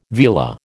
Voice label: "vela", American